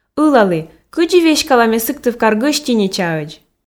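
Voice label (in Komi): ылалі кыдзи веськала ме сыктывкар гӧстиничаӧдз, Komi, female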